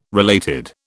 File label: "related", British